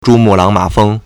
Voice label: "zhu1mu4lang3ma3feng1", Chinese